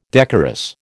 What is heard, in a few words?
"decorous", American